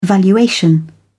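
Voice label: "valuation", British English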